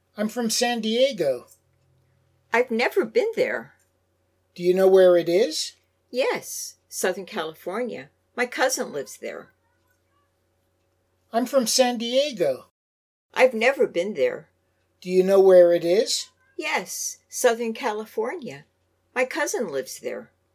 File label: Natural Dialog; Lesson 1